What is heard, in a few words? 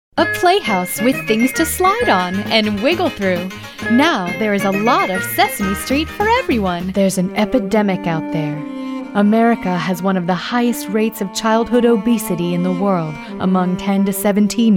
commercial